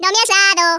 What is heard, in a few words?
"missed", Hispanic; Worms Armageddon